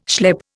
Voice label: "schlep", Indian